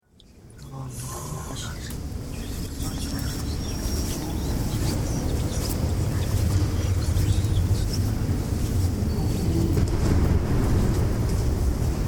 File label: whispering